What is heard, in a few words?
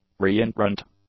"reentrant", English; adjectives